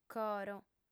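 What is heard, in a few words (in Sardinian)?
"coro", female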